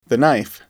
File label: knife, englishAudio